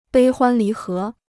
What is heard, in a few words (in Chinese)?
"悲欢离合", Chinese